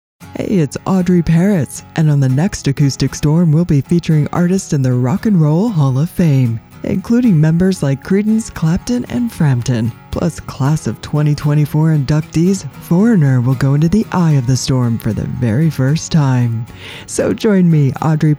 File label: PROMO; Acoustic Storm; USRN-FM; kkcl-am